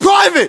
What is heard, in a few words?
US rank private, battlechatter, english